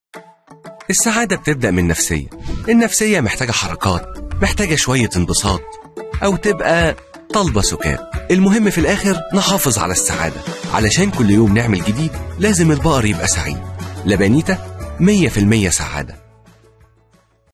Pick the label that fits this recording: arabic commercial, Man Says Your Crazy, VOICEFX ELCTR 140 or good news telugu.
arabic commercial